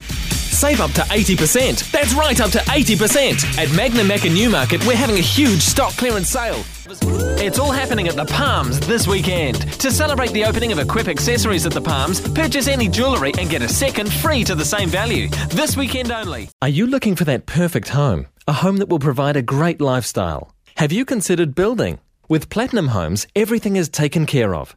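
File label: New Zealand English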